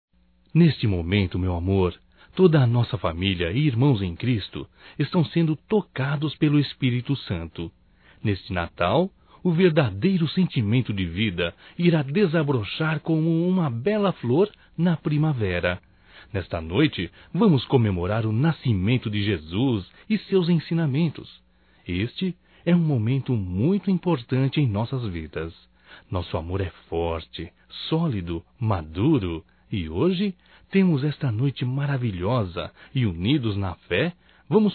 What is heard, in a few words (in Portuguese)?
Romântico Masculino; Telemensagens 2017; Natal & Ano Novo